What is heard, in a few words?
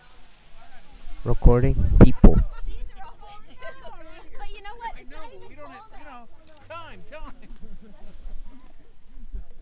People Talking